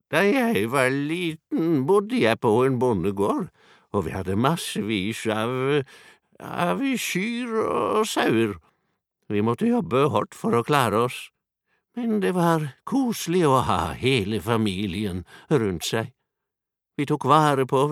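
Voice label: Norwegian dub old man, male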